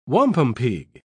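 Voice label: "wampumpeag", English, British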